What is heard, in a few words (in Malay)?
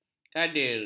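"kadir", Malay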